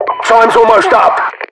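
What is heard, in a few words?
British times up; Voiceovers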